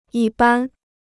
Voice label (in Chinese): "一般", Chinese